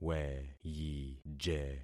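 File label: "weyije", concatenated syllables; Affixing experiment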